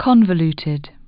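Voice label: "convoluted", English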